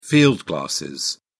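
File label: "field glasses", English, British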